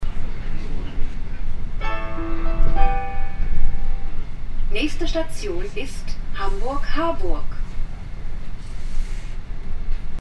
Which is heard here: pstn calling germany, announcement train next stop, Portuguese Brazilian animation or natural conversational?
announcement train next stop